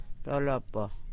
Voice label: "dolobbo", female voice